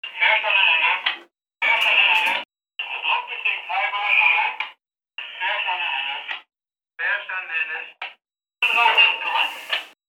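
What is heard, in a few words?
fire department radio message; fire dep radio msg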